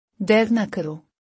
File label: Don’t be late. - Urdu; Basic Greetings and Courtesy